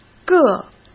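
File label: "各", Mandarin Chinese; chinese-flashcards; hsk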